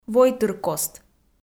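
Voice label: "войтыркост", Komi, female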